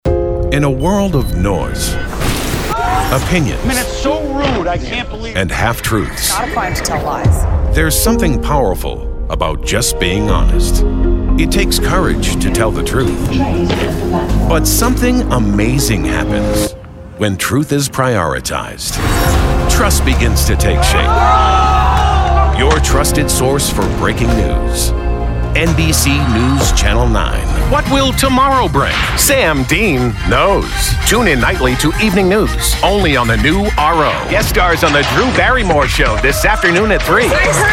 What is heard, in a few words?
TV Affiliate Promo